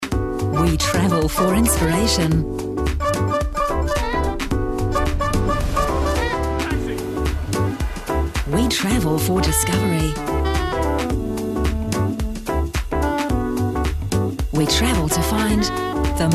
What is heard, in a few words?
TVC; MHJ Explorers Selection